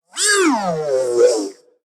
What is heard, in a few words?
Necramech Repair Drone Idle Surprised; Battle Barks